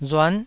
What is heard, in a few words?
"ruan9", taiwanese